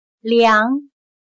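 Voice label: "凉" Chinese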